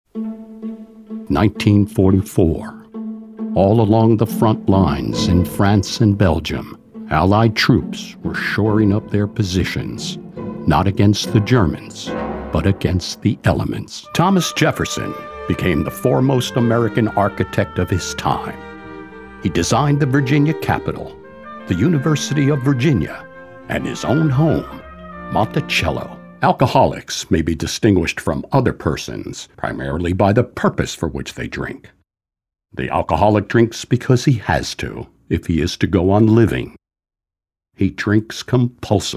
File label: Narration